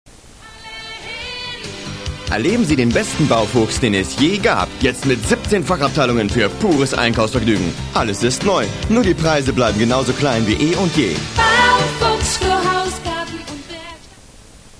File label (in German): Werbung; Baufuchs